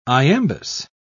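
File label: "iambus", British English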